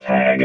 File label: "tag", robot